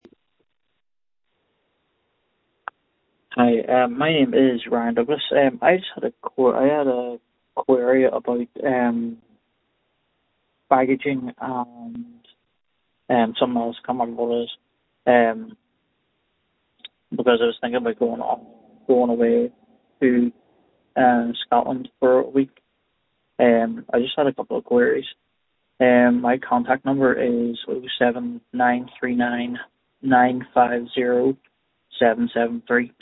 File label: call recording